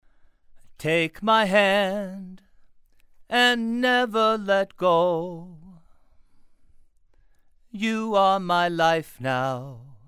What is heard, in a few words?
Male Vocals; SCX1